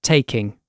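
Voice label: "taking", British English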